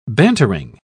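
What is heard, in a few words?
"bantering", British English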